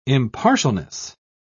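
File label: "impartialness", British English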